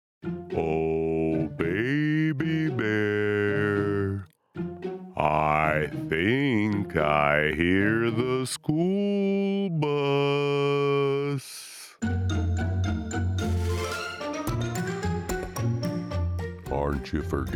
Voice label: Animation